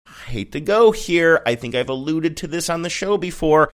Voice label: saying i hate to go here i think ive alluded sing songy